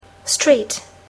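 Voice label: "street", English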